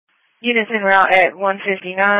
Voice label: Police Patrol 1 (Dispatch)

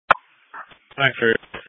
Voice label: EMS Dispatch

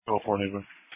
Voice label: Police Patrol 1 (Dispatch)

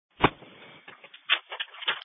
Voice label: Fire Dispatch

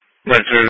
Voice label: EMS Dispatch

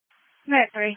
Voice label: EMS Dispatch

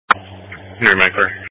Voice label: EMS Dispatch

